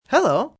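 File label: "Hello" male; Greetings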